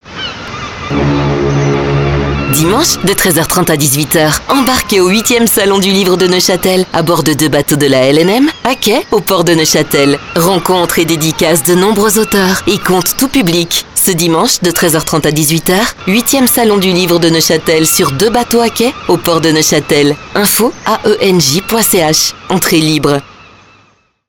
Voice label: PROMO